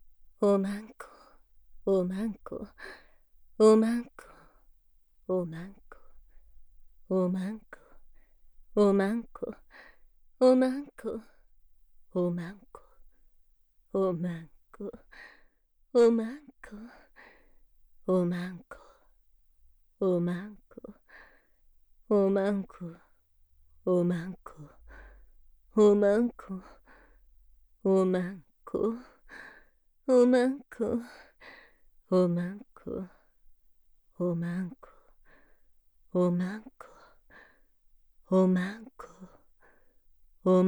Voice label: 大人のお姉さん

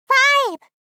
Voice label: "5（ファイブ）", 少女×中音域

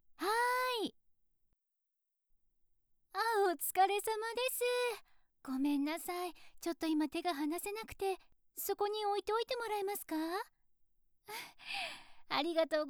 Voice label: 手が離せない, インターホン用音声

